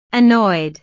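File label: "annoyed", English; Molesto, molesta; əˈnɔɪd; estados animo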